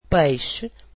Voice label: "fish", Portuguese